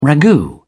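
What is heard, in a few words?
"ragout", British English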